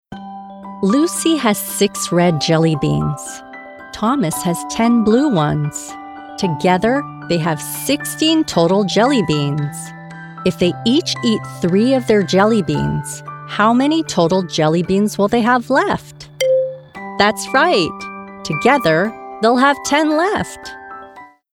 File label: E-LEARNING KIDS